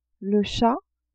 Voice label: "chat", French

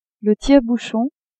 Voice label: "tire-bouchon", French; cuisine

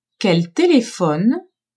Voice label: "qu'elle telephone", French; telephoner

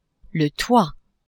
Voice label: "toit", French; maison

